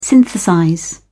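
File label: "synthesize", British English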